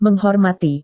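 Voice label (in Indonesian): "menghormati", Indonesian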